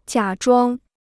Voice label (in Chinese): "假装", Chinese